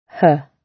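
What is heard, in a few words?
"her", British English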